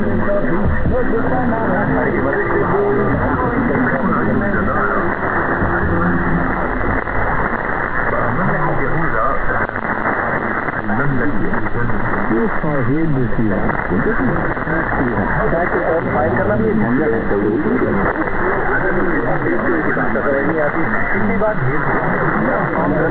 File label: hindi